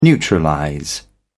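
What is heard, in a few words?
"neutralize", British English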